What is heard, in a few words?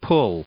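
"pull", English, male; verb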